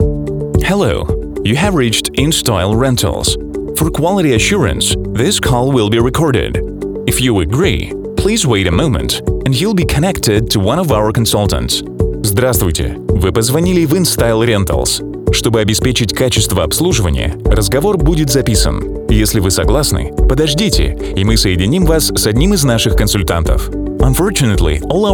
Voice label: M IVR Eng Rus